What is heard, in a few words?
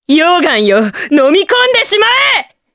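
Skill, Japanese; Morrah; kingsraid; jp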